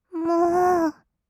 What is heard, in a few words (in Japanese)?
"もー", kawaii voice; ASMR; 色々なワンショット